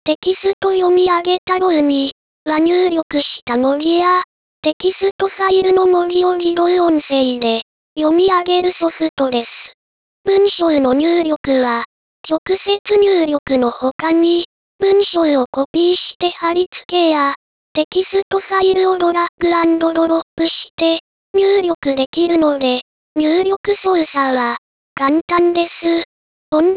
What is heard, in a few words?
lady